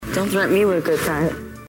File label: threaten